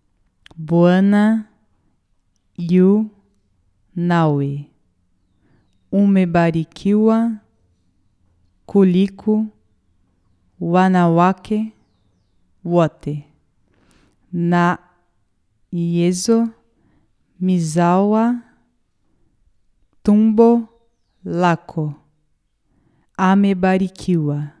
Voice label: ave maria suhaili pausado

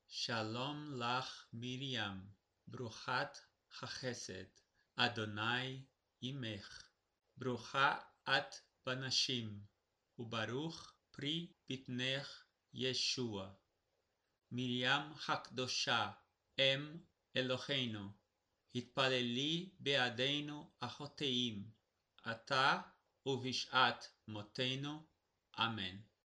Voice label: ave maria hebreo normal; ave maria idiomas

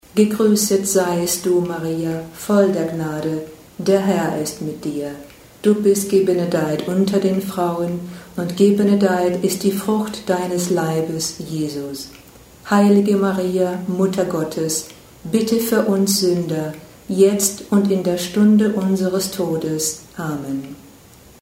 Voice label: ave maria aleman normal; ave maria idiomas

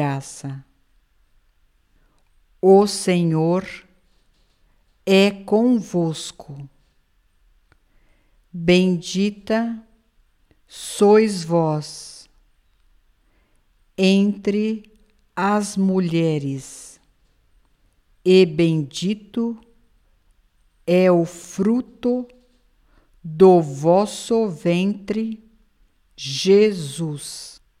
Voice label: ave maria portugues pausado; ave maria idiomas

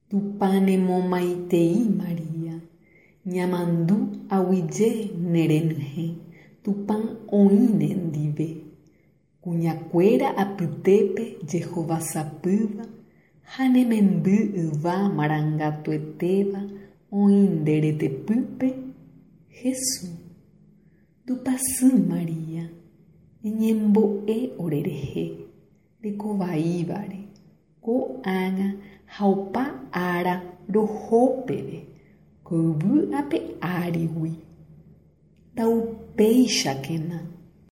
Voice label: ave maria guarani normal; ave maria idiomas

